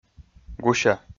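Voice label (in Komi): "гуся", Komi, male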